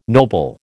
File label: "noble", American